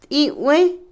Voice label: Otomí, mujer; rana; Animales; INALI

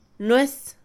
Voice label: nuez, Mixe, Mujer; Comida; INALI

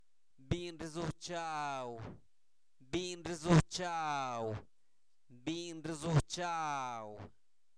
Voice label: catrina, Zapoteco, santo domingo albarradas; INALI; humano

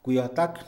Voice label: cancha, Mixe, hombre; Casa; INALI

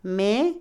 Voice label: amo, dueño, Otomí, mujer; INALI

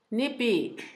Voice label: "aguamiel-pulque", Zapoteco Asunción Ocotlan; Alimento; INALI; Haspelmath

